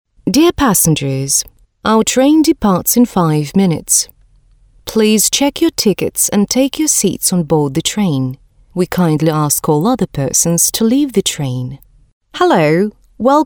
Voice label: ENG

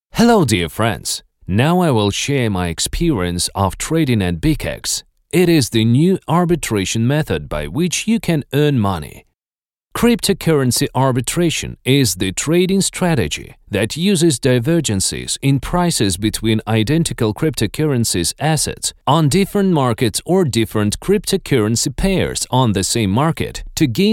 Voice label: ENG

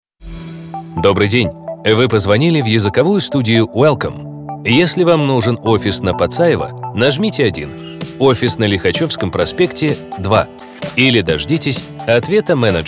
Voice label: IVR

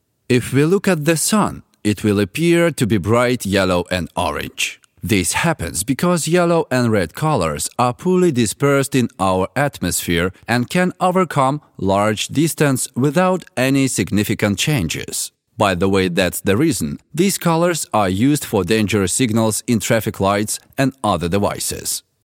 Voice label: ENG

